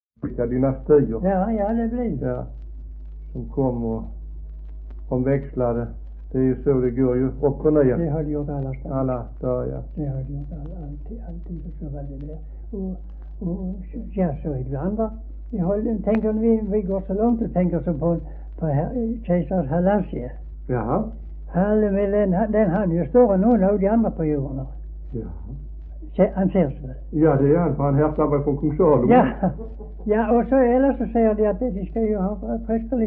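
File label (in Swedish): Höör Skåne, Svenska sagor, dialekter; Folkminnen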